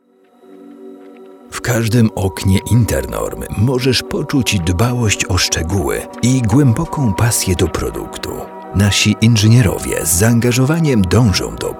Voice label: polish corporate videos